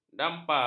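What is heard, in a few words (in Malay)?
"dampar", Malay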